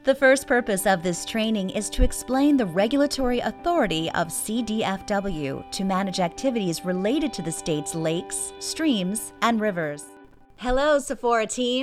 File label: elearning